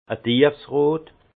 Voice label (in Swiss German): "tiefsrot", alsace; vin; rouge profond